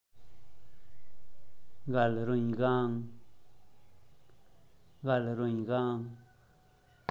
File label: gal ruin gang, zapoteco teotitlan